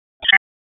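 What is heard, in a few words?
Police Southwest District Tac, radio; Raleigh; Tac 1; p25; wake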